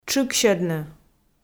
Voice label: "тшыксьӧдны", Komi

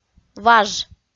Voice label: "важ" kpv f

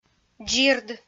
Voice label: "дзирд", Komi

